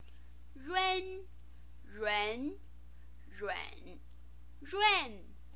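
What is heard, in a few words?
"run", Mandarin Chinese pinyin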